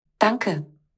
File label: thank you german; turkey dash